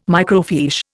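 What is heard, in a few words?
"microfiche", English, Indian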